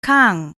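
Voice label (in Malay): "kang", Malay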